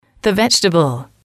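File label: "the vegetable", English, female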